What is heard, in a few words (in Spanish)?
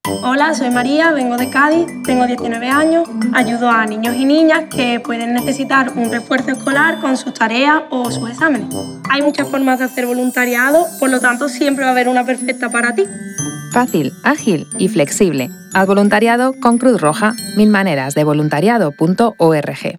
SPOT RADIO VOLUNTARIADO; CRUZ ROJA; MIL MANERAS